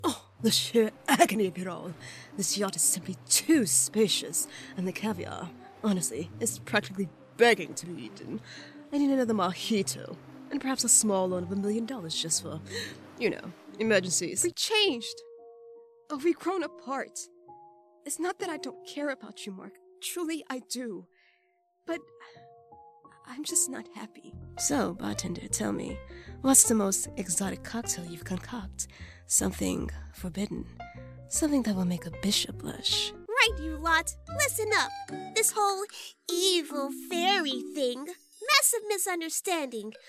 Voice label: Character Voice; Voice123; Demo Reel